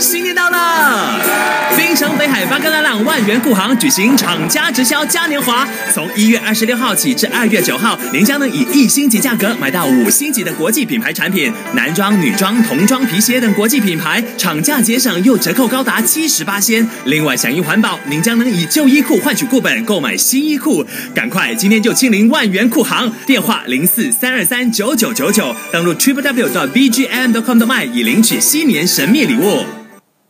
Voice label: high spirits